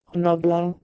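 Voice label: "h3nobhlon", Proto-Indo-European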